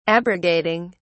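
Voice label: "abrogating", English, British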